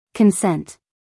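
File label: "consent", American English, female; 1000 hours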